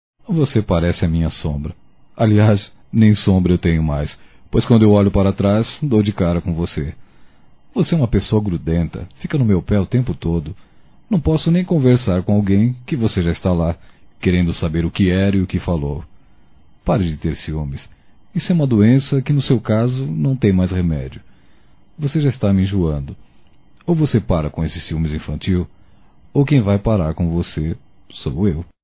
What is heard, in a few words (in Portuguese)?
Ciumes Masculino; Telemensagens 2017